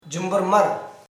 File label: "thief", Sora